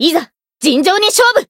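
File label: variable chant, Japanese; azami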